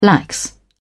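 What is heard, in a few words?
"lax", British English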